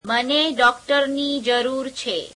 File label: gujarati I need a doctor